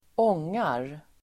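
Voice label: "ångar", Swedish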